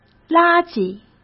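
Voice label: "垃圾", Mandarin Chinese; chinese-flashcards; hsk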